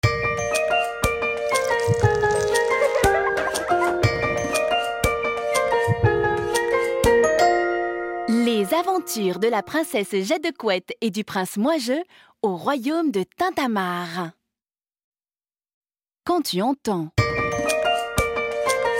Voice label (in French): raconte livre audio, comédienne voix off; scritch scratch; Macif